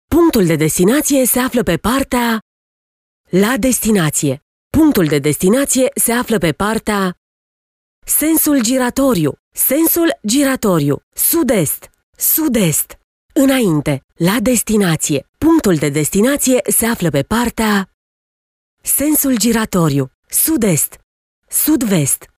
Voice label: female, romanian